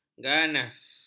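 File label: "ganas", Malay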